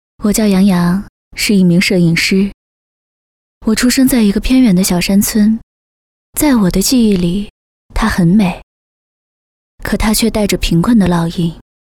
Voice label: 旁白自述

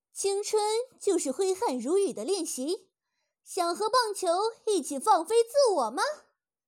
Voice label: 御姐音清朗飒爽; 花木兰

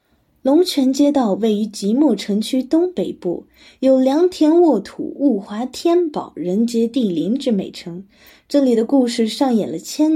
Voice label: 故事试音

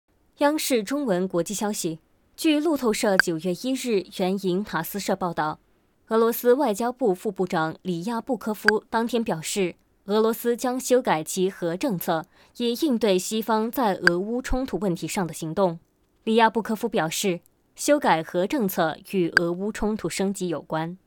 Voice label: 普通话新闻

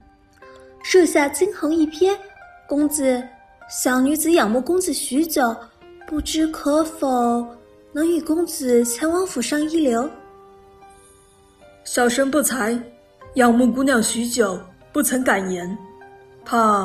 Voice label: 少女少男

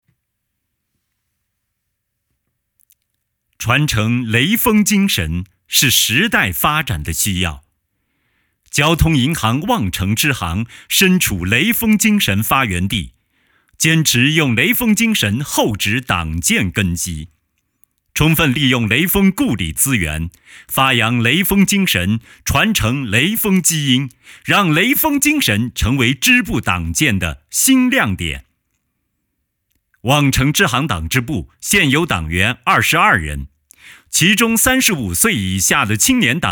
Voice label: 企业汇报片配音片段

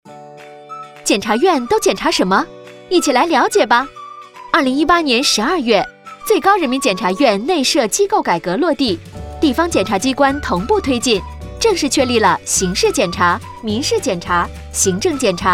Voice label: 阳光干练检察机构改革

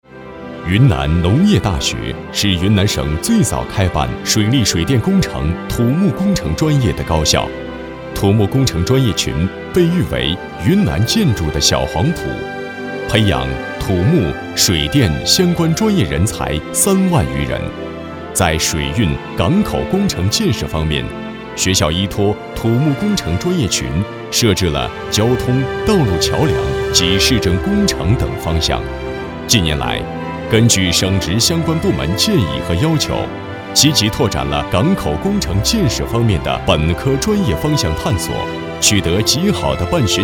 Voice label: 专题大气介绍

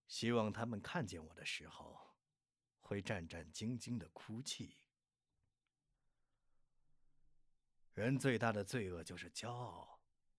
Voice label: 成熟青年

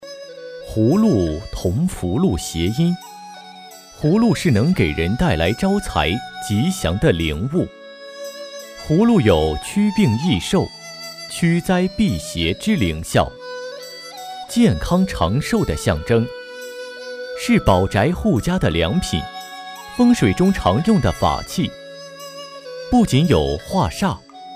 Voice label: 纪录片; 葫芦

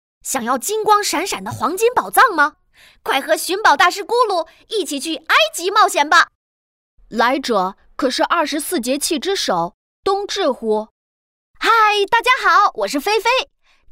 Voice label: 角色男童音

